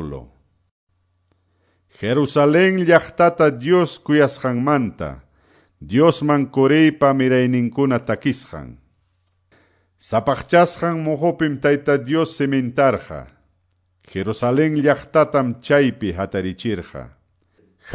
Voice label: salmos, quechua ayacucho